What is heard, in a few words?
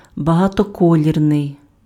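"багатоколірний", Ukrainian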